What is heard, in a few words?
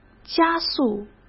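"加速", Mandarin; chinese-flashcards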